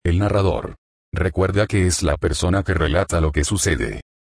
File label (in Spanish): el narrador